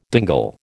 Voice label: "dingle", American